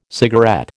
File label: "cigarette", American